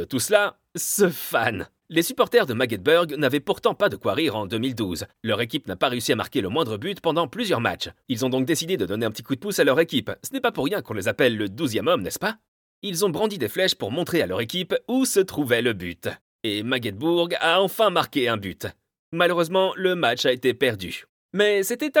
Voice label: male french football channel; youtube